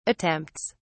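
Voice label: "attempts", English, British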